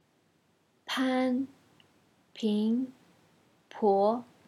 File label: free chinese learning p pronounciation